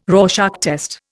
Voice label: "Rorschach test", Indian